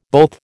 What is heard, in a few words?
"both", American